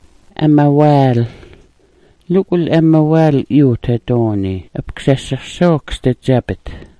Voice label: "amawaal", smalgyax

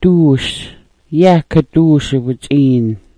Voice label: "duus", smalgyax

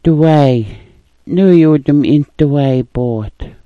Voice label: "duwaay", smalgyax

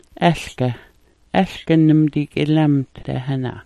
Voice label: "alga", smalgyax

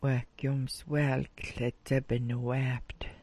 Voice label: "'wahgyoomswaal", smalgyax

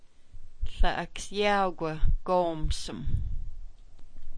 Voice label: "aksyaawk", smalgyax

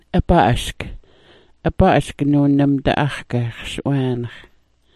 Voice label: "aba'ask", Smalgyax

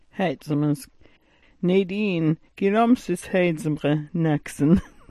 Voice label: "haytsmgask", smalgyax

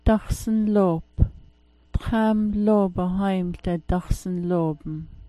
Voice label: "daxsn", smalgyax

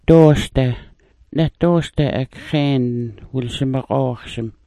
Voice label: "doosda", smalgyax

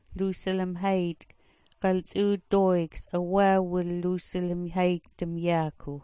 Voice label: "lusilmhaytk", smalgyax

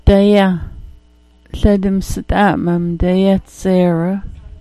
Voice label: "daya", smalgyax

